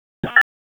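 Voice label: Police Northwest District Tac, radio; wake p25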